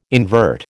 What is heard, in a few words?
"invert", American